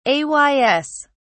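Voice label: "ays", British English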